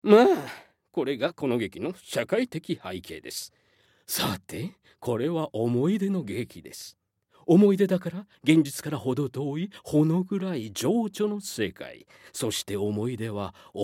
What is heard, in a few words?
male voice; セリフ